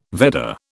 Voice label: "Vedda", British